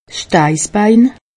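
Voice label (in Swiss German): "steissbein", Haut Rhin; medecine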